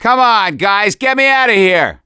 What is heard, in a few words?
call for rescue, survivor voice; gambler